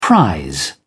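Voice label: "prise", British English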